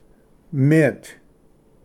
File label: "ᎤᏥᏓᎵ", Pronunciation; mint